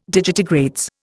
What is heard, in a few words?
"digitigrades", Indian